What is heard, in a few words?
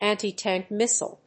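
"anti-tank missile", English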